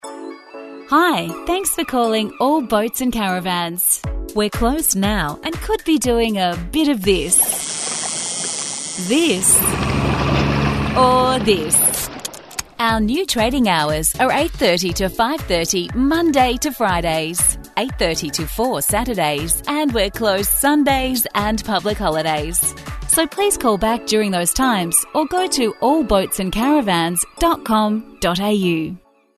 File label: IVR; All Boats Caravans